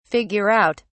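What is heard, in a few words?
"figure out", English, British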